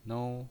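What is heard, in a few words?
"nou" first tone, pinyin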